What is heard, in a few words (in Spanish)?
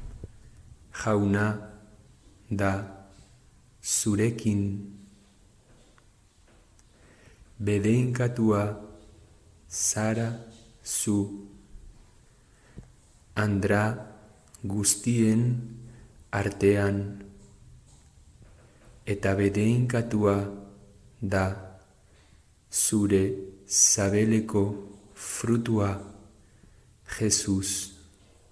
ave maria euskera lento